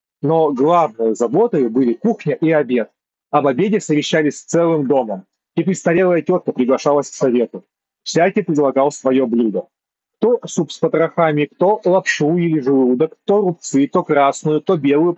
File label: Mic noise; yandex drops